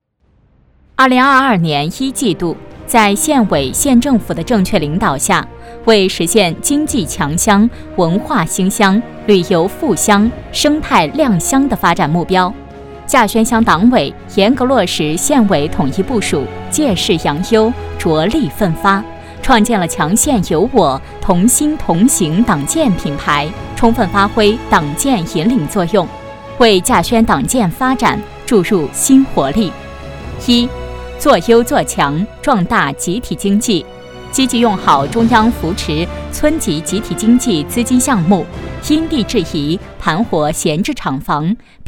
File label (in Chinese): 女 专题 活动视频解说词